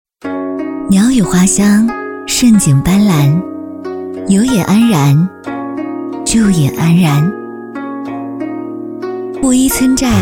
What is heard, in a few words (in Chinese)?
女 文旅片 偏坡布依族乡旅游